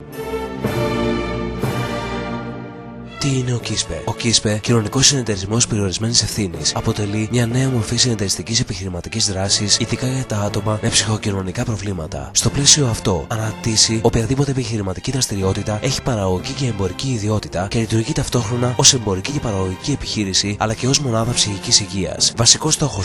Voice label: radio promo